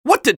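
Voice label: scout taunt rps lose, male voice; taunts; tf2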